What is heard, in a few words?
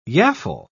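"yaffle", British English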